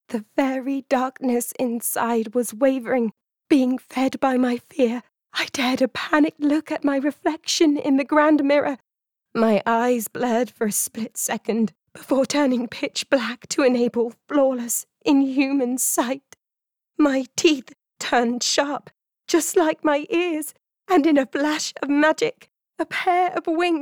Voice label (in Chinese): 外籍英语女声 角色 恐惧带哭腔